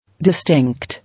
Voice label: "Distinct", English

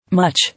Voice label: "Much", English

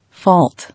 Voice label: "Fault", English

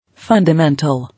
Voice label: "Fundamental", English

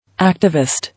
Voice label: "Activist", English

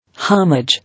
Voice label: "Homage", English

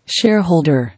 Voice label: "Shareholder", English

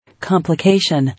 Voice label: "Complication", English

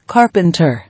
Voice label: "Carpenter", English

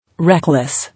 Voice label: "Reckless", English

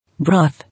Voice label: "Broth", English

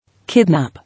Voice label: "Kidnap", English

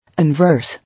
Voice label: "Inverse", English

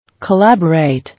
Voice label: "Collaborate", English

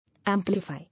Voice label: "Amplify", English

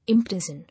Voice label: "Imprison", English

